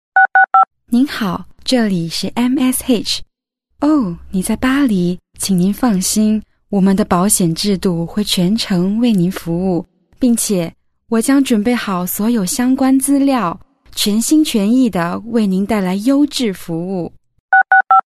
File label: Mandarin, woman